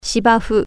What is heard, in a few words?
grass, japanese